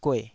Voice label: "gueh3", taiwanese